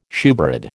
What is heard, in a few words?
"shewbread", American